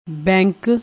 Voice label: "bank", Punjabi